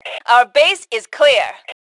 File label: Base Clear, Female; T2 Female 01